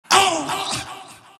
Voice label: monkey crown pain; dota; monkey king